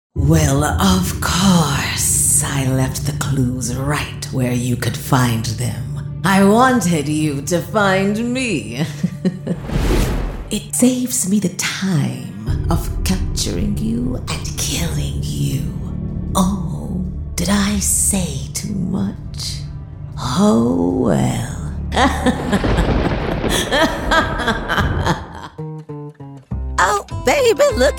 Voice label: Character, Female Voice Over, Versatile, Authentic, Vivacious, Personal